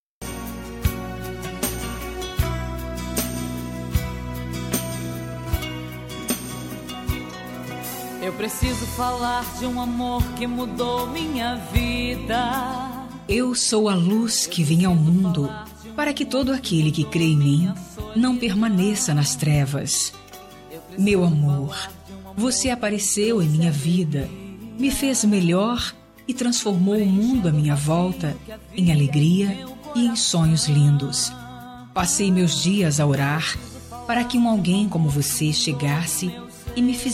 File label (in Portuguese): natal romantica, Voz Feminina